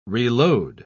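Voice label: "reload", English word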